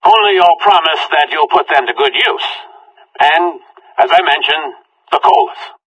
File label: conversation; Left 4 Dead 2